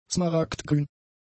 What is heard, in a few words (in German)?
"smaragdgrün", german; adjectives